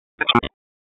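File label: Raleigh Police North District Tactical; wake; p25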